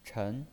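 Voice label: "chen", Mandarin Chinese pinyin voice, second tone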